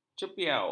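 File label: "cepiau", Malay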